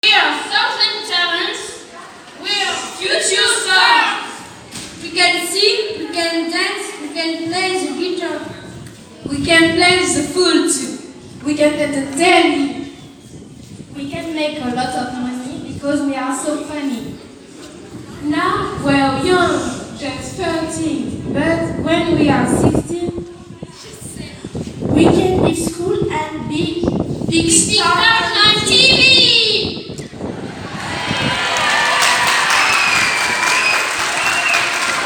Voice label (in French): poesie en anglais; 5ieme